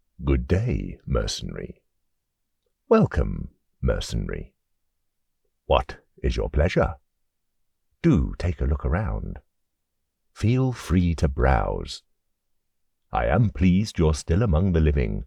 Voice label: British Black Market Store Merchant